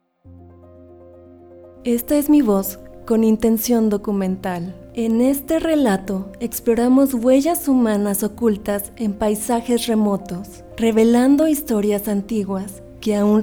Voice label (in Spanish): documental Musicalizado, locutora